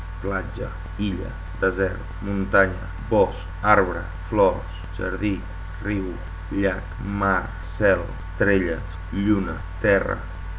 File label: catalan